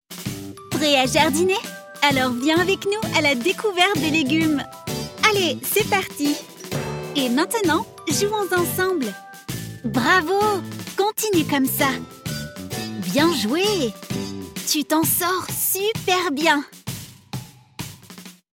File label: Interactive Children App with music